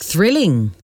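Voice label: "thrilling", English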